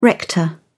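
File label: "rector", British English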